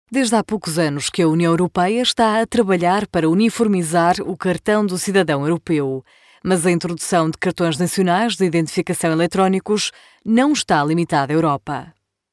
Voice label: female portuguese voice over narrative, portugal